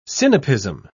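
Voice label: "sinapism", British English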